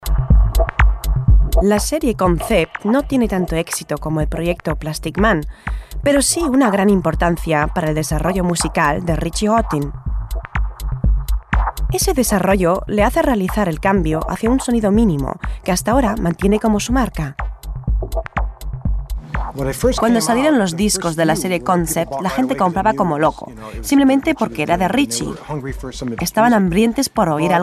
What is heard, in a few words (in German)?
Voiceover Doku span.